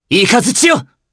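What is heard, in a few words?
Vox Skill Japanese; Theo; kings raid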